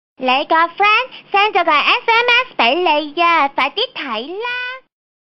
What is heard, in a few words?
cantonese tone, funny ringtones